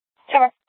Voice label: Dispatch; Scottsdale